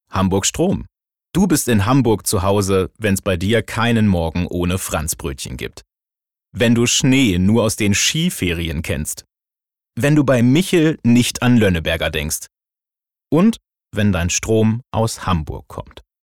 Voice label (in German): Werbung Strom; Hamburg